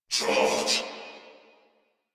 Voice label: Surprised Crewmen, Battle Barks; Dialog; Warframe; Corpus Crewmen; Crewman C